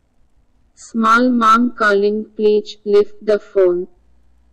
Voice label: Small Mom Calling